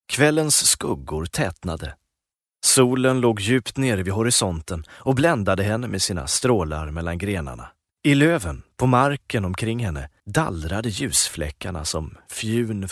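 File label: Swedish, male, narration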